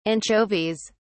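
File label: "anchovies", British English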